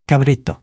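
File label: "cabreta", Occitan Languedocien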